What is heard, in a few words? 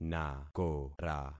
"nakora", concat syllables; Affixing experiment